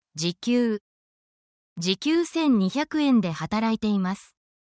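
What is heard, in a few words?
Japanese word, essential Japanese phrases; for your workplace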